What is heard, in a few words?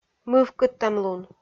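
"мывкыдтӧмлун", Komi, female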